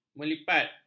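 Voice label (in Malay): "melipat", Malay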